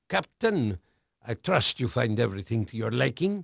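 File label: Dutch male, voice; Pirates Of The Caribbean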